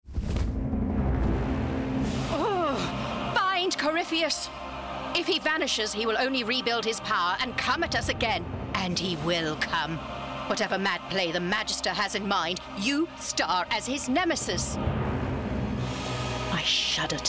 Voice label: female Irish multiple accents and characters gaming compilations; irish neutral